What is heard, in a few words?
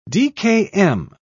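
"dkm", British English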